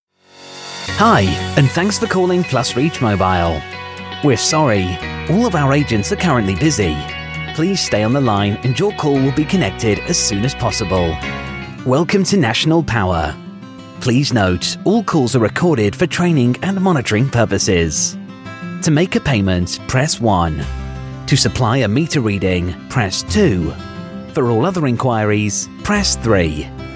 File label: telephone